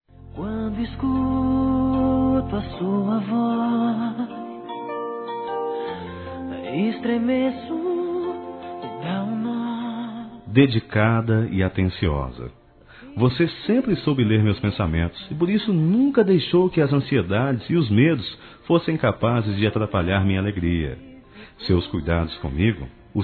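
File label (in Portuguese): MENSAGEM MÃE, Masculino; Telemensagens; Telemensagens 2017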